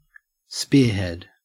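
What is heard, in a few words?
"spearhead", Australian English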